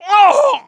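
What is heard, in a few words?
twilek male hit; XBOX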